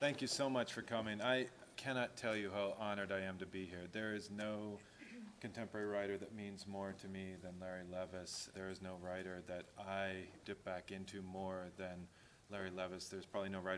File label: reading